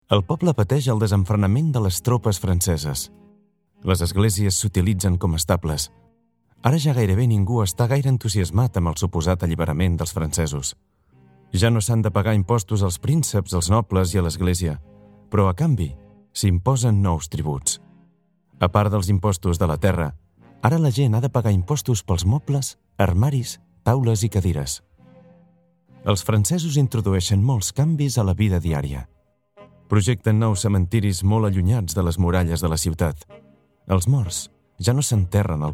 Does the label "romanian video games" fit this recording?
No